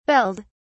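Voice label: "belled", British English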